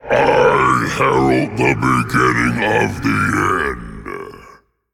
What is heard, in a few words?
Male Faceless Play